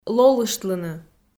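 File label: "лолыштлыны", Komi, female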